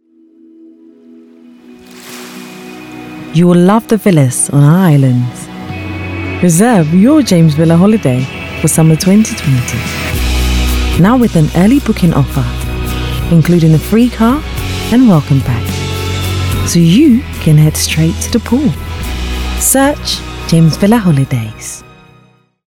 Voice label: female african and english slight ghanian accent holidays; english southern accents; james villa holidays commercia